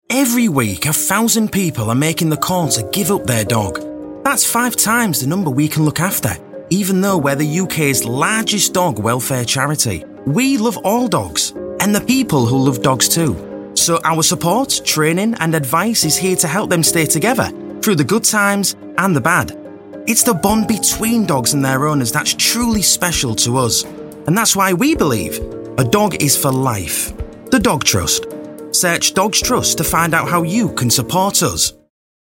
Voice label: Yorkshire voiceover commercial